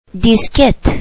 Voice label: "diskette", Spanish

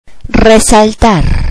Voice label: "highlight to", spanish computer

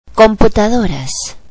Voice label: "computers", Spanish; Computadoras

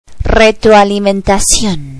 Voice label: "feedback", Spanish; retroalimentación

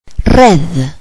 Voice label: "network", Spanish

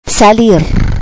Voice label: "exit to", Spanish

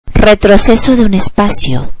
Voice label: "back space", spanish computer

